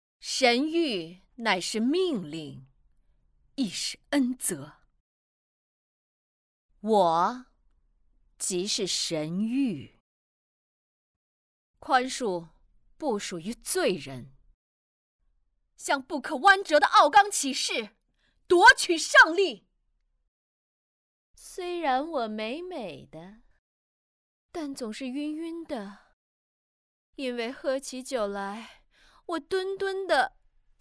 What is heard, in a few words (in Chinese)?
御姐女王